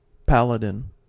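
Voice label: "paladin", American English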